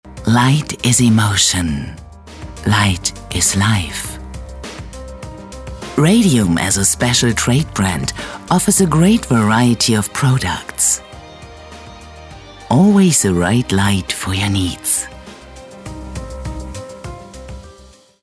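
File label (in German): Imagefilm, Sprecherin; RADIUM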